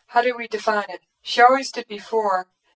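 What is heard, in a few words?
female dereverberated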